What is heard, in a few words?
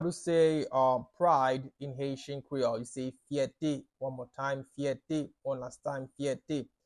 Proud in Haitian Creole Fye pronunciation by a Haitian Creole teacher